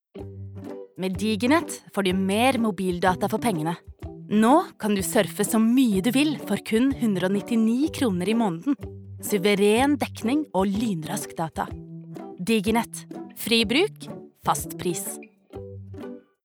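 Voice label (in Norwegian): reklame, Norwegian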